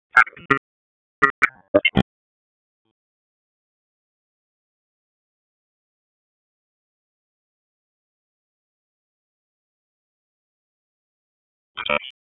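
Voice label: Police District Tactical, p25; Raleigh Police North District Tac 1; wake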